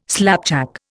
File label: "slapjack", Indian